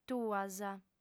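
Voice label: "tuas", female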